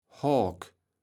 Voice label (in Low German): "Hoak", kaevels platt